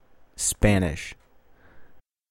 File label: spanish, verb to be